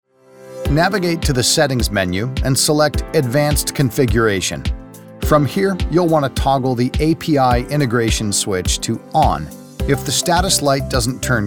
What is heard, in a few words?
e-learning